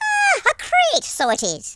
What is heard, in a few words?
"drop", Irish; speech